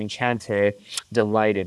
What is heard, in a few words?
Voice Acting, es, M